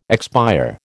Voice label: "expire", American